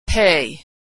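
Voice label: "pay", American English; verb; Consonant Sound Pair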